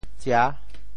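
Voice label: "tsia6", Teochew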